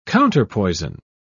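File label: "counterpoison", British English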